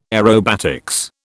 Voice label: "aerobatics", British